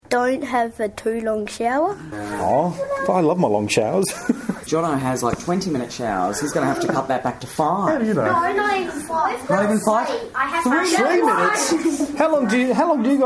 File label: kidspeak